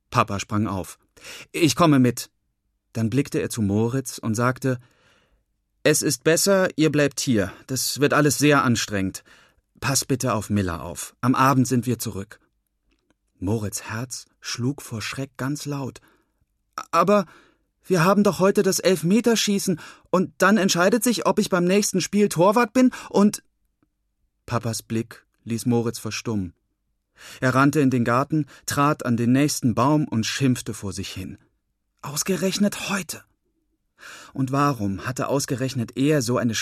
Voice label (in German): Radio; Ohrenbaer